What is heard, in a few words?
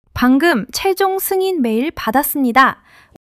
excitement; monologue